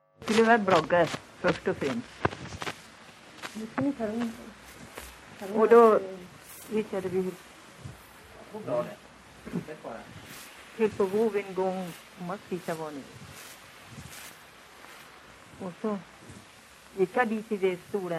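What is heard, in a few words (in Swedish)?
Järbo Gästrikland, dialekter; Folkminnen; Svenska sagor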